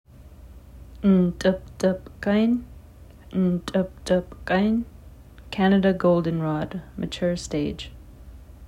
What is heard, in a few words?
nt̓pt̓pqin, Nsyilxen Plant Names; canada goldenrod mature stage